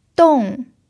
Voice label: "dong4", Mandarin pinyin